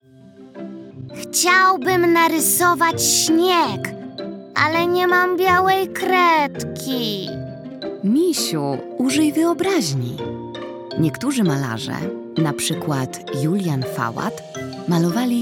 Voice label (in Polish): narracja; czasopismo mis; zima; rozmowa